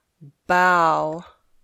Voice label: "bow", English

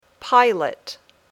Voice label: "pilot", English

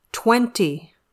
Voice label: "twenty", English

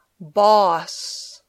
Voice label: "boss", English

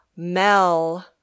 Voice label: "Mel", English

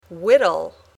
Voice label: "whittle", English

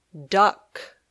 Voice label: "duck", English

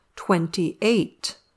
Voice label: "twenty-eight", English

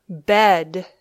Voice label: "bed", English

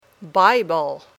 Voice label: "Bible", English

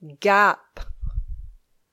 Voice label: "gap", English

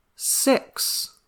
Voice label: "six", English

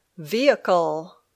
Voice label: "vehicle", English

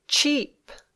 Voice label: "cheap", English

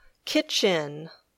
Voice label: "kitchen", English

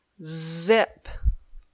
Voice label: "zip", English

